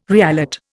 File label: "reallot", Indian